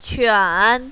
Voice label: "quan3", mandarin, female

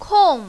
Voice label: "kong4", mandarin, female

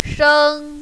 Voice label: "sheng1", mandarin, female; 生

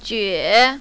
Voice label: "jue3", mandarin, female

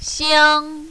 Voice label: "xiang1", mandarin, female

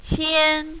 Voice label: "qian1", mandarin, female; 迁

